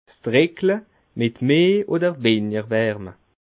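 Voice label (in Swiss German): "drickle", Alsatian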